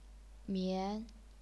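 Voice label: "mian", second tone, chinese pinyin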